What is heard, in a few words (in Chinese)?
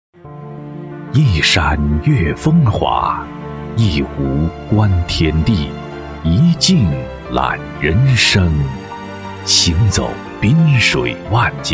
男 广告 房地产; 万科房地产